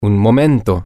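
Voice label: Spanish, male; just A Minute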